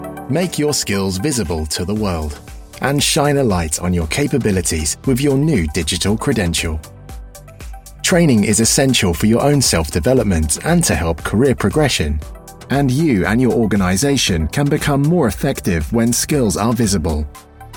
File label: Corporate Promotio